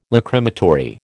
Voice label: "Lachrymatory", American